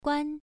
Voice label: "guan1", pronunciation

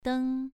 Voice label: "deng2", pronunciation

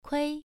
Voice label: "kui1", pronunciation

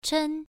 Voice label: "chen1", pronunciation

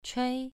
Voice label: "chui2", pronunciation

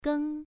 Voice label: "geng1", pronunciation

